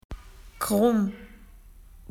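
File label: "wiggly", Australian English; PictureDictionary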